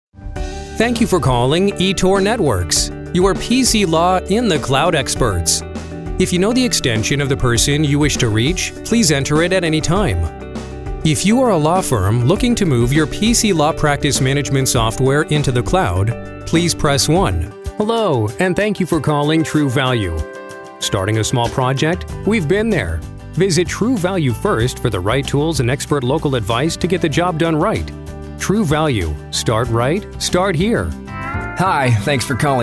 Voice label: phone

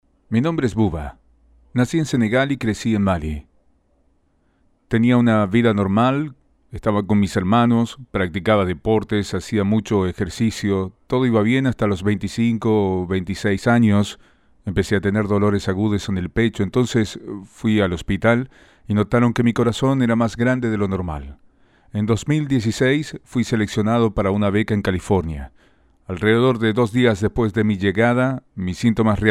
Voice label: Patient Testimonial

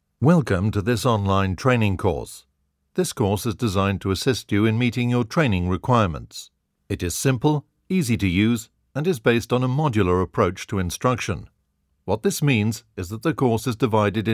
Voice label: elearning

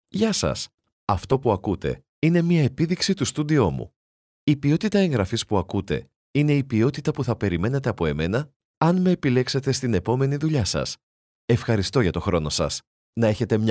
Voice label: Booth Quality

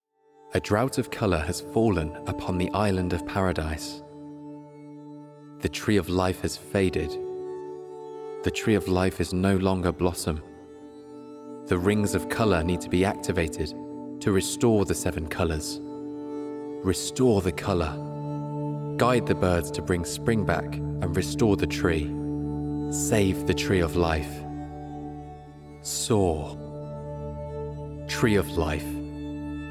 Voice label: ad

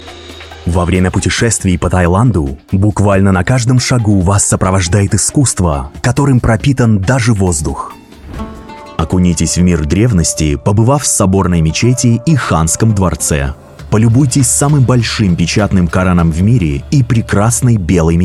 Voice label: Podcast Russian

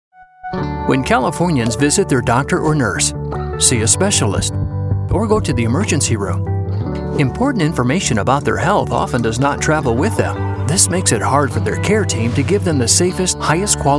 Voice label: Voice Over

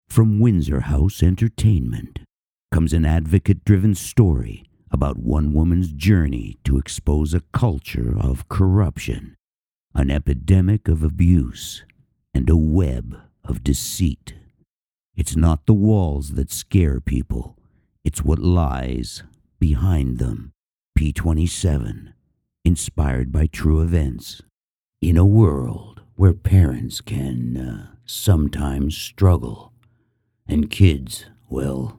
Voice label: Movie Trailer

